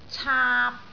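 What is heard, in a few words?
"caap3", Cantonese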